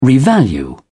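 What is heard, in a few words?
"revalue", British English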